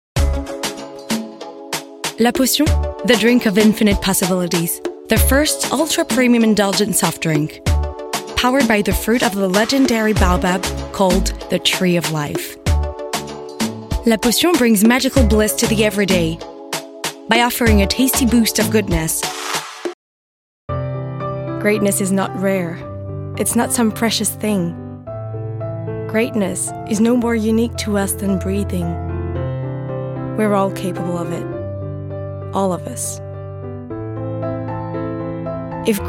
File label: English commercial narration fiction